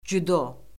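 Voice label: "cüdo", Azerbaijani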